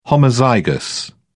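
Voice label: "homozygous", British English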